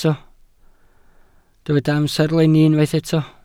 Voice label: "amserlenni", Welsh